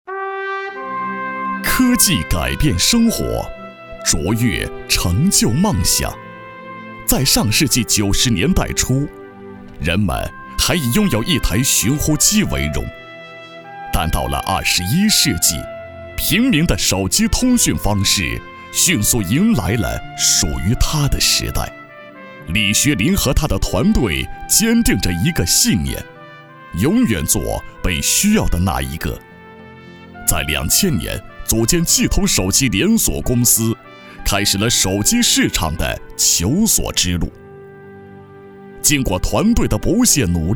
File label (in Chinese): 宣传片 企业 沉稳, 男; 际通